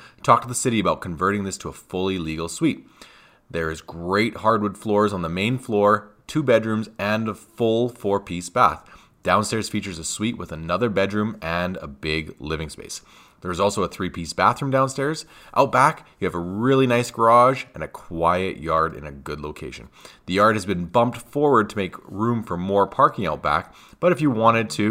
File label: Voice Over